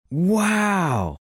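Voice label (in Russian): мужчина очень восхищенно это замечательно; Вау звук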